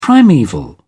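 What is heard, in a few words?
"primeval", British English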